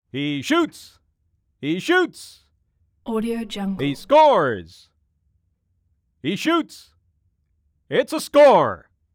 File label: basketball announcer he shoots he scores; prosoundfx